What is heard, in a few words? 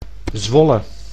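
"Zwolle", Dutch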